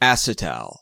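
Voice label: "acetal", US English; pronunciation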